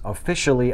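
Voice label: "officially", US English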